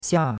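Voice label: "xia4", Chinese; Cours-de-Chinois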